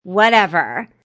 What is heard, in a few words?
Young Lady Says Whatever; Talking Sound Effects